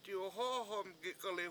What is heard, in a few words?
"di7o7hO7homgik1li7" I scold hit him, Washo